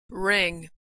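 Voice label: "ring", American English; verb; Consonant Sound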